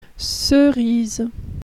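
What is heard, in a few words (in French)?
"cerise", French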